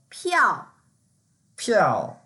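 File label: "piao4", Mandarin Chinese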